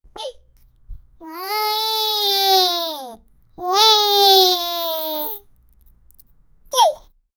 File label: a child muttering; children